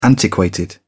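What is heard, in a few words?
"antiquated", British English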